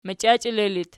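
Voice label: "machiachele" locative, Archi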